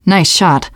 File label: nice shot, teen girl; player; survivor; voice